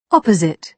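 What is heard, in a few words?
"opposite", British English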